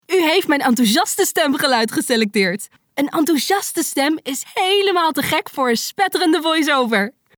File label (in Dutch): Enthousiast